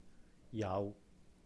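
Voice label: "有", Cantonese; has; yáuh; jau5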